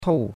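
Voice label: "tou4", Mandarin Chinese; Cours-de-Chinois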